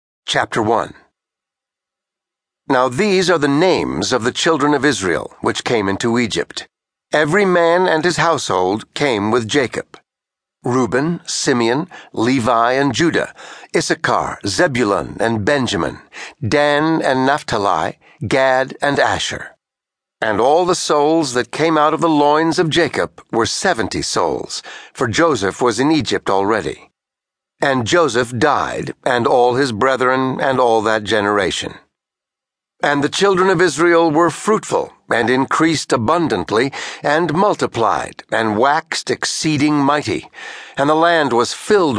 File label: Pure Voice; Bible